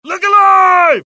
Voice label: alert, dialogue generic US; mohaa